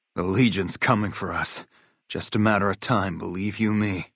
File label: male adult greeting